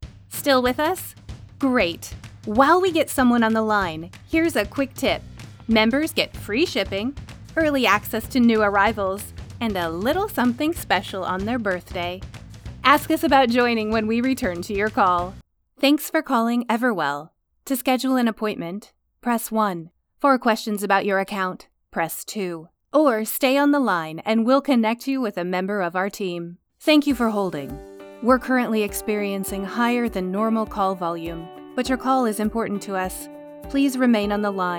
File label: voicemail